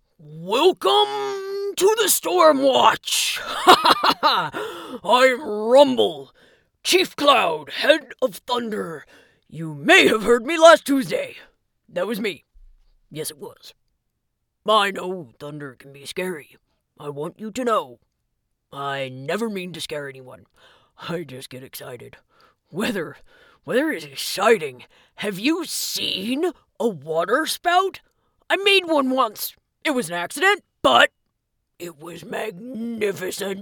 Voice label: Big booming joyful enthusiastic and proud